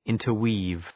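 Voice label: "interweave", English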